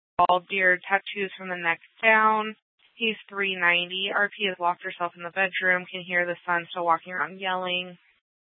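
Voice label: Police Department Dispatch, scanner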